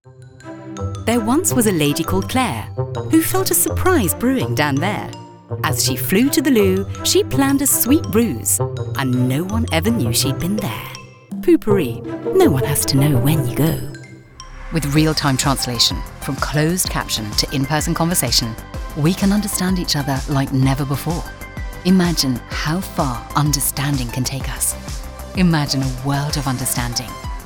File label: Commericial